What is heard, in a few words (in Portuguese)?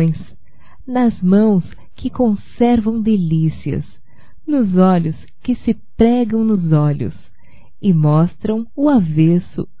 DIA DE NAMORAR - F, Românticas fem